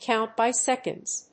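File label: "count by seconds", English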